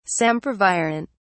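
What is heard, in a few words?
"sempervirent", English, British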